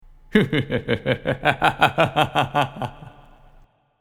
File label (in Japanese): 魔王の声